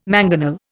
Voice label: "mangonel", Indian